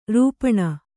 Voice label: "ರಪ್ಪನೆ", Kannada; rūpaṇa; ರೂಪಣ; noun; to shape

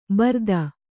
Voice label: "ಭರಿತ", kannada

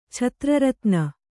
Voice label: "ಛತ್ರರತ್ನ", Kannada

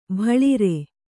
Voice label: "ಬಾಳರ", Kannada

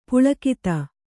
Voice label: "ಪುಲಕಿತ", kannada

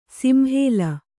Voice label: "ಸಿಂಹಲ", Kannada

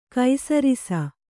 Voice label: "ಕೈಸರಿಸ", Kannada

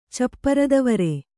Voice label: "ಚಪ್ಪರದವರೆ", Kannada

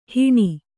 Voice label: "ಹಣಿ", Kannada

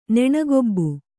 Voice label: "ನೆಣಗೊಬ್ಬು", Kannada

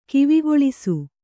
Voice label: "ಕಿವಿಗೇಳಿಸು", Kannada

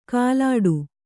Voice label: "ಕಾಲಾಡು", Kannada

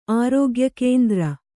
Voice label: "ಆರೋಗ್ಯಕೇಂದ್ರ", Kannada

